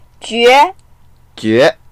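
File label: "jue2", Mandarin Chinese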